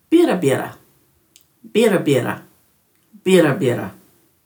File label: "birrabirra", Dhurga